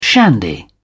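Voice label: "shandy", British English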